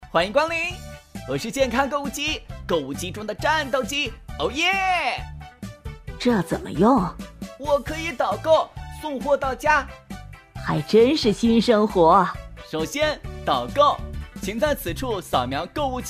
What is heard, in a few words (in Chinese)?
动画声音展示